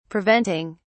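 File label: "preventing", British English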